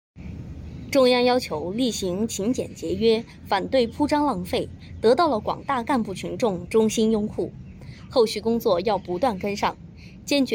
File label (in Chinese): 新闻录音